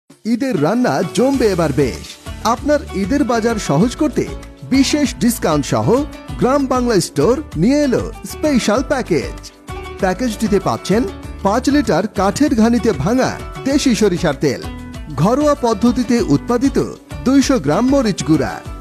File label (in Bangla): promotional voice bangla; sorisar tel